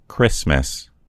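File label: "christmas", American English; gcompris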